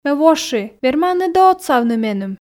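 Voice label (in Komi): ме воши верманныд-ӧ отсавны меным, Komi, female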